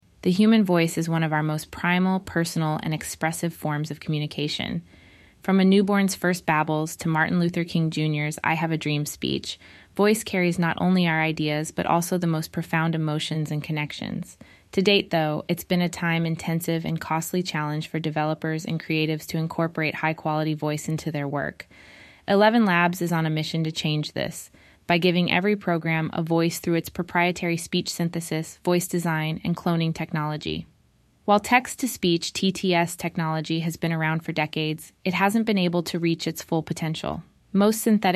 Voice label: Voiceover